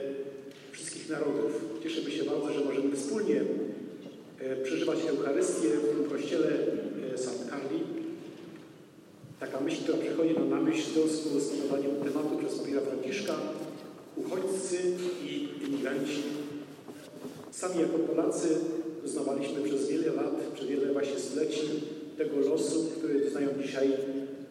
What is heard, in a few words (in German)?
Grussworte auf Polnisch; Wie sieht Vielfalt an rel Orten aus